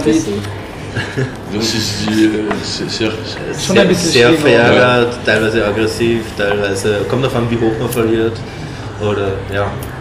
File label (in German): Fussball Faninterview Verlieren